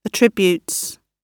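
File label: "attributes" British English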